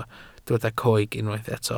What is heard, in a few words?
"coeg", Welsh